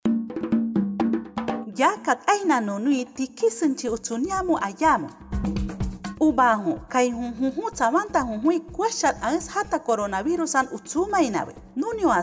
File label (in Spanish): awajun, spot de radio; nuevas medidas extremo; precauciones al salir de mi comunidad